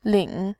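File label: "lin3", Mandarin Chinese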